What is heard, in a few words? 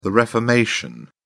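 "reformation", English, uk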